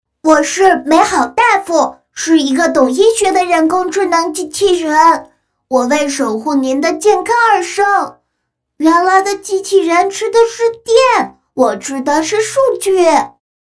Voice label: 女 动漫 男童4到5岁机器人

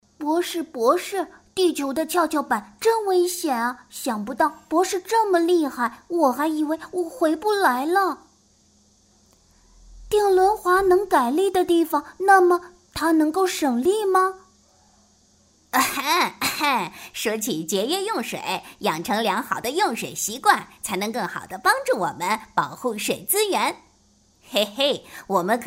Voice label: 女 角色 动画片小男孩小女孩; 样音

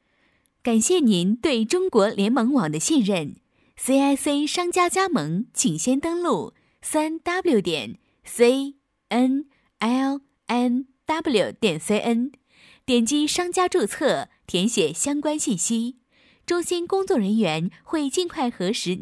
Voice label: 女 课件 公司广播语音

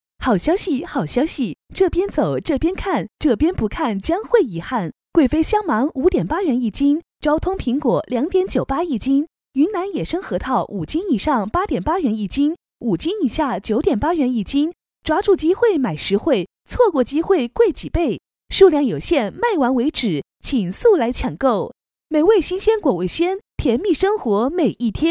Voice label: 女 促销 好消息

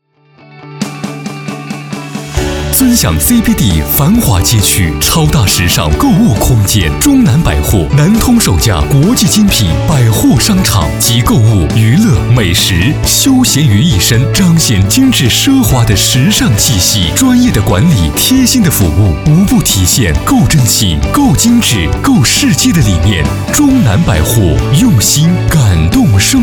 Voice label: 男, 广告, 时尚广告

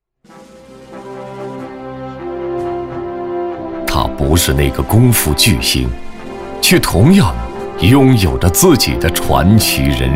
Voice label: 男 专题 军人介绍

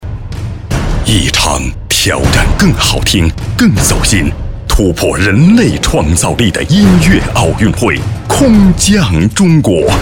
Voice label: 男 广告 综艺

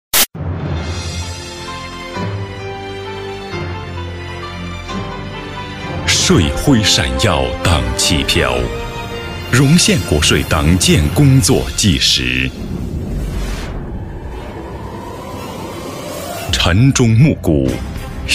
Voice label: 男 专题

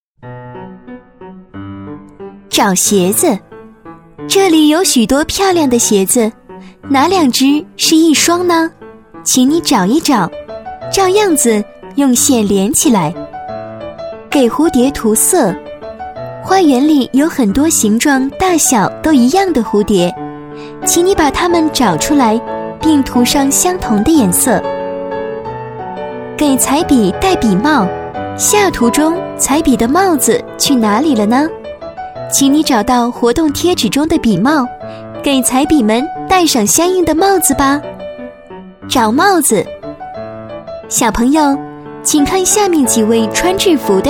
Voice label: 女7-专题-找鞋子（青春干净）

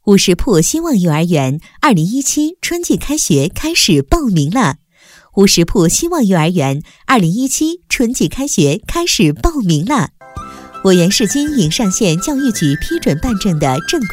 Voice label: 女 专题 希望幼儿园

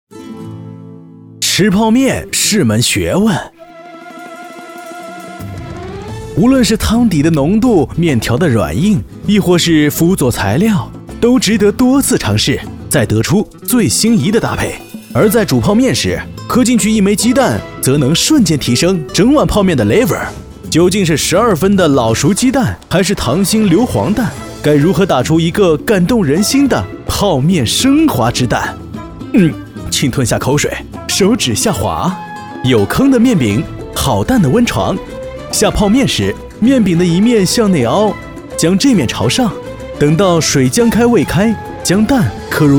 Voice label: 男; 飞碟说；吃泡面时加个高逼格的蛋蛋

